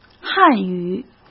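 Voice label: "汉语", Mandarin Chinese; chinese flashcards; hsk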